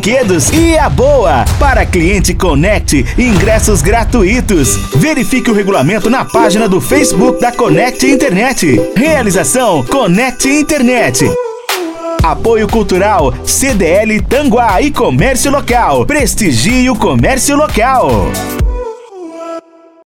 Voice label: Spot Especial Natal

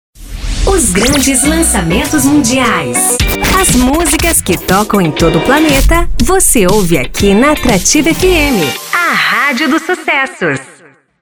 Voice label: Vinheta Falada

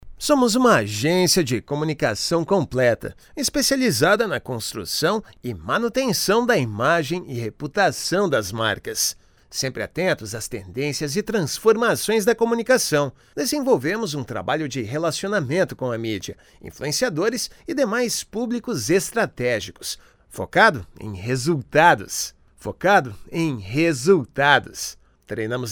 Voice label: Institucional

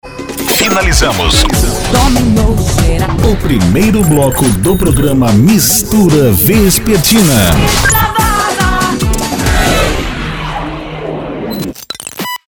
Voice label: Vinheta Falada

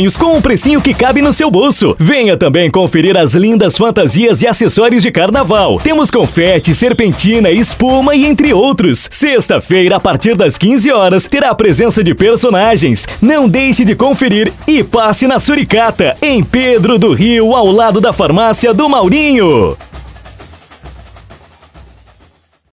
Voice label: Spot Simples Volta as Aulas

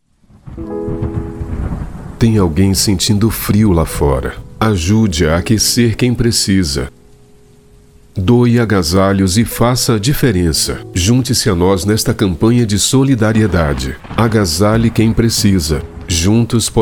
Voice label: Spot; Ajude a Aquecer

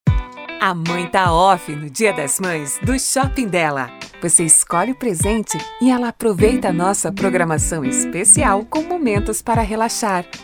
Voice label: Spot Simples Shopping; Della

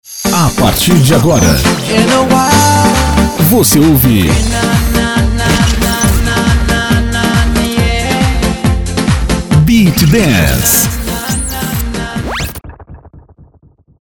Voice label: Vinheta Falada

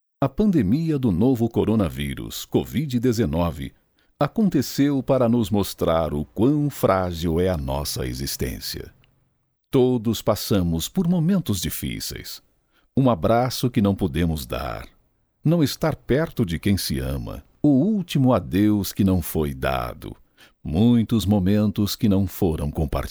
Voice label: Institucional

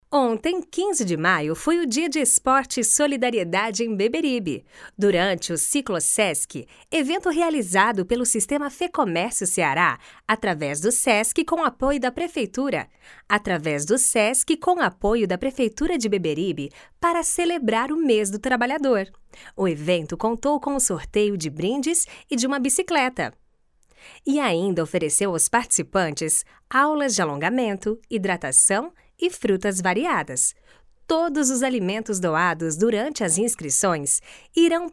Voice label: Institucional

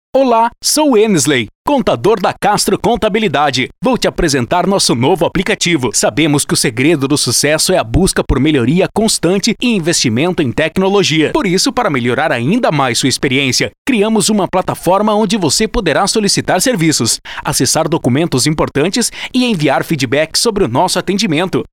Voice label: Institucional

